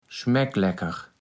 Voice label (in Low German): "Schmecklecker", platt